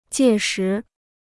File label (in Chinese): "届时", Chinese